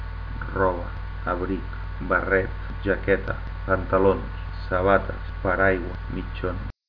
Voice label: catalan; clothes